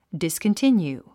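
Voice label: "discontinue", English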